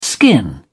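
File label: "skin", British English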